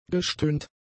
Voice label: "gestöhnt", German; adjectives